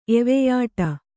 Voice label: "ಎವೆಯಿಡು", kannada

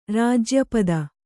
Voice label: "ರಾಜ್ಯಪದ", Kannada

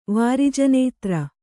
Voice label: "ವಾರಿಜನೇತ್ರೆ", kannada

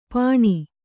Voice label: "ಪಣಿ", Kannada

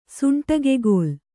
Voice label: "ಸುಂಟಿಗೆಗೋಲು", kannada

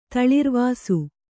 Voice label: "ತಳಿರ್ವಾಸು", Kannada

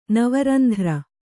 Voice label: "ನವರಂಧ್ರ", Kannada; nava randhra; noun; = ನವದ್ವಾರ.

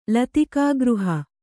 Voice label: "ಲತಿಕಾಗೃಹ", Kannada

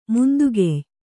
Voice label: "ಮುಂದುಗೆಯ್", Kannada

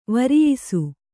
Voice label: "ವರಿಯಿಸು", Kannada

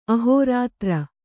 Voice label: "ಅಹೋರಾತ್ರ", Kannada

